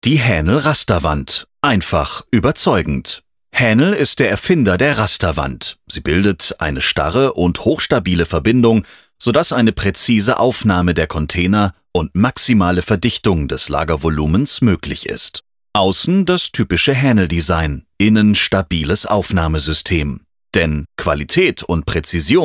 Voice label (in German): Studioaufnahme Explainer